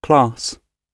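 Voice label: "class", British English; gb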